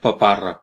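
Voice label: "tick", Catalan; gcompris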